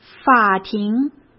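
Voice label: "法庭" Mandarin Chinese; chinese flashcards; hsk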